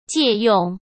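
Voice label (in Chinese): "借用", Mandarin Chinese; hsk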